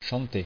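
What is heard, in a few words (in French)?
"chanter" French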